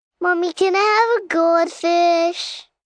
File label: Whine Goldfish, Whine, 1 girl, 5 yrs; Whining